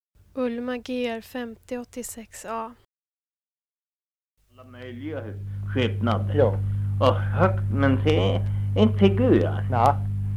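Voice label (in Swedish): Norsjö Västerbotten, dialekter, svenska sagor; Folkminnen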